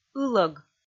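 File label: "ылӧг", Komi, female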